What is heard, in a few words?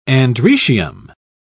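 "androecium", English, British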